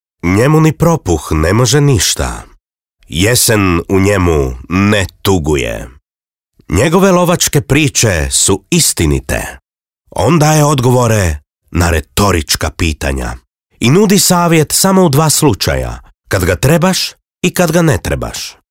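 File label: croatian male character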